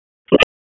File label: Police Tac, p25; wake